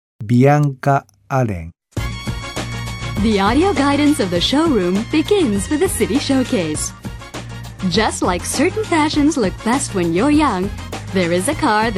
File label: narrator USA F